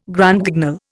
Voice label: "Grand Guignol", Indian